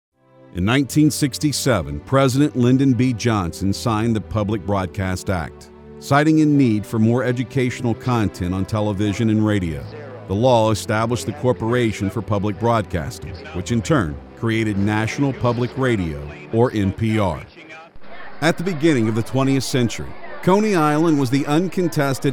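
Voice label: English US Documentary; GoLocalise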